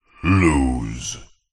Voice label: multimedia male voice processed says lose